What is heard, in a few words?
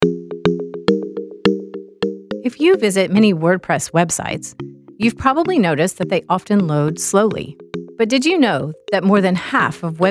Voice Over and Music; WP Rocket